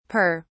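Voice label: "pere", British English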